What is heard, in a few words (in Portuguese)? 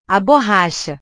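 "a borracha", Portuguese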